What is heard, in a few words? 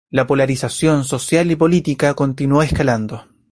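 "escalando", Spanish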